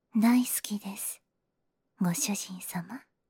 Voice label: 大好きです、ご主人様, システムボイス

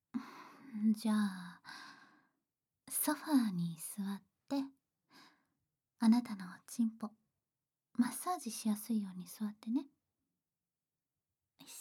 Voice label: トラック2（SEなし）：マッサージ師のおち〇ぽマッサージ, ASMR

